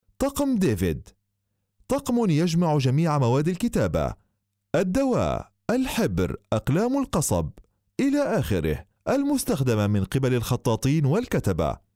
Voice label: arabic